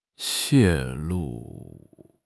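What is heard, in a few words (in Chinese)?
"泄露", Chinese; HSK6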